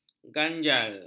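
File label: "ganjal", Malay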